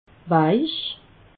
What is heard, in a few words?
"weich", alsace